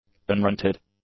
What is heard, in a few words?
"unrented", english; adjectives